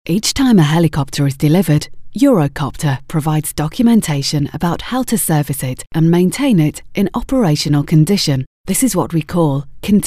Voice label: Corporate Narration

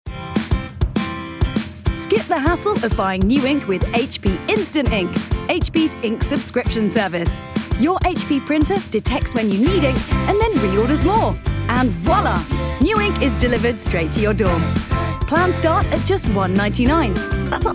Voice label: Commercials

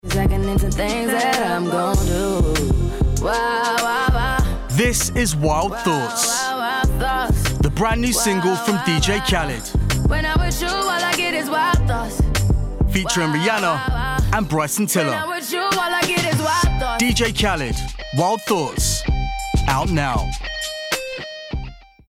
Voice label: Music Commercials

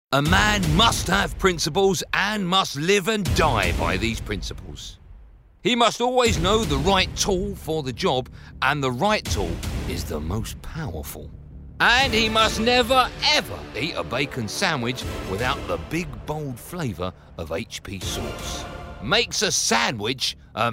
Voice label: Commercials

